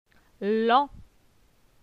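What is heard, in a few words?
"Lent", French; phonetique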